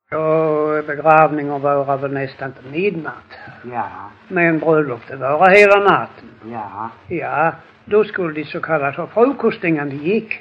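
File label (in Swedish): Lackalänga Skåne, dialekter svenska sagor; Folkminnen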